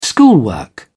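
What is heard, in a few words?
"schoolwork", British English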